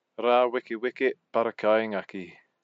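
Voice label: "rawekeweke parakaingaki", Māori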